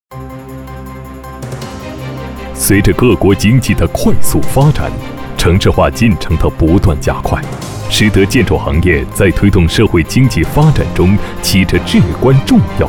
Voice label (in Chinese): 男 项目介绍 干练大气